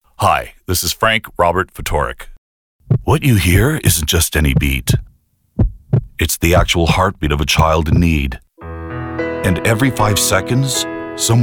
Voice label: commercial male